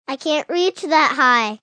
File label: Kids I Cant Reach, Male, child; Talking Sound Effects